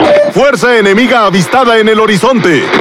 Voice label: Es Speech Detection Enemy Any Ship; World Of Warships